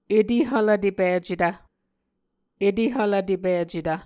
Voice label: "etehvlvtepicetv", Muscogee